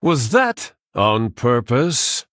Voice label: Male Human Oops; en

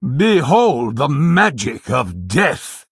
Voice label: Male Human Start; en

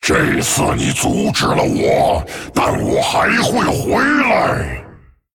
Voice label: Male Dragon Concede; zh

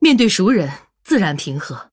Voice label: Female Human MIRROR GREETINGS, zh

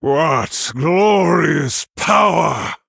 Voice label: Wow, Male Night Elf

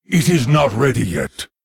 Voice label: Male Lich ERROR SUMMON SICKNESS; en

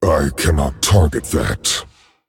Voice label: Male Dragon; Not a valid target; I cannot target that.; en; 风暴巨龙迦拉克隆（英雄）

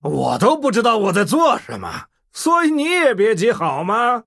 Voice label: Male Human Threaten; zh

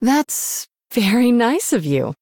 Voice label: Female Human Greetings; HERO

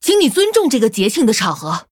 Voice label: Female Human Threaten; zh

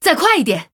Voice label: Female Human Attack, zh

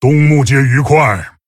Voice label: Male Tauren HAPPY HOLIDAYS; zh

